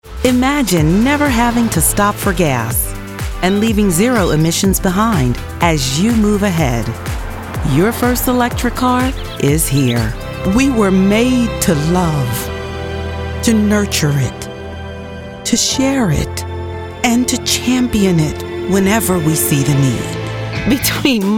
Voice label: Commercial; Voiceover